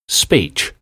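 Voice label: "speech", English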